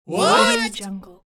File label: cartoon comical group surprised what